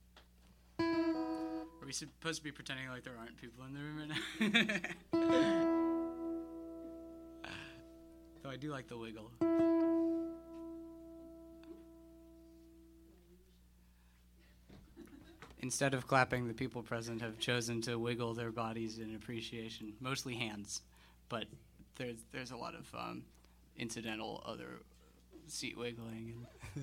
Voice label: Banter

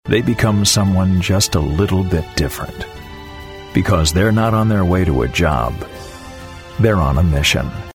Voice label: Respectul and anthemic; The US Army

